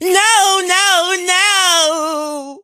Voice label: death vo; carl